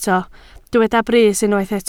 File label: "brys", Welsh